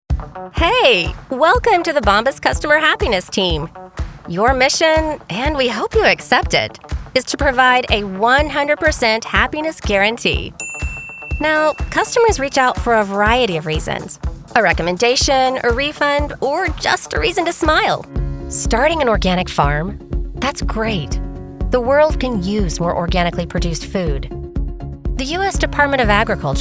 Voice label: Elearning

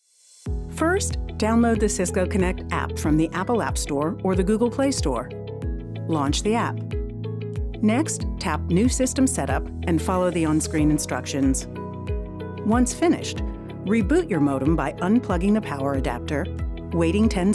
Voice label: eLearning explainer

